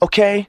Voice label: "okay", expletives